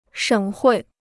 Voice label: "省会", Chinese